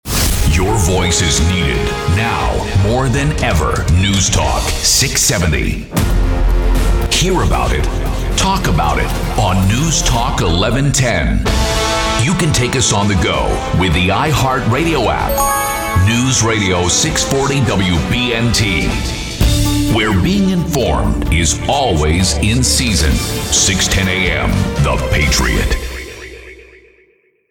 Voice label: NEWS TALK